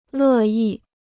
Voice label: "乐意", Chinese

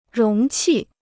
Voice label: "容器", Chinese

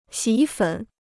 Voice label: "洗衣粉", Chinese

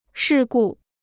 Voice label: "事故", Chinese

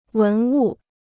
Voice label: "文物", Chinese

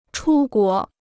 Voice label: "出国", Chinese

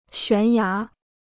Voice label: "悬崖", Chinese

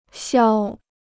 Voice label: "消", Chinese

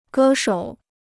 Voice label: "歌手", Chinese

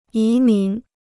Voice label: "移民", Chinese

